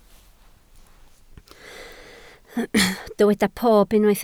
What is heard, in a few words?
"pob", Welsh